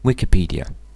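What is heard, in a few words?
Wikipedia, British English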